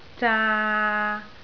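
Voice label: "zaa3" Cantonese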